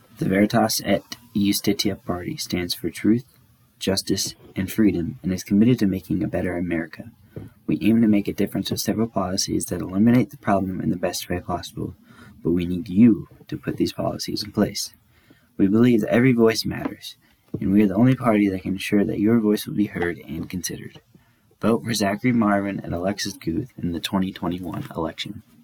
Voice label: radio advertisment